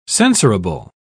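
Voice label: "censorable", British English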